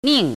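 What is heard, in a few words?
"nìng", Chinese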